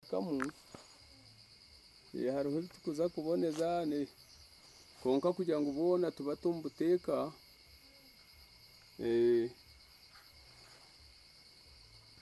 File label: Conversation